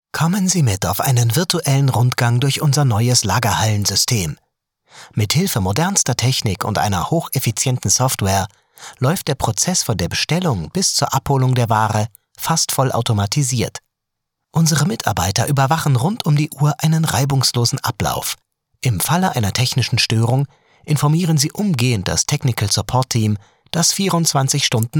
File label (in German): Werbung Image